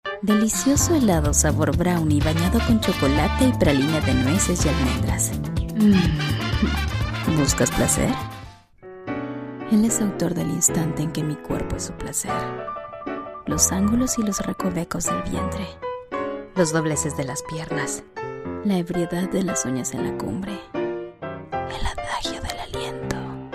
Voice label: SENSUAL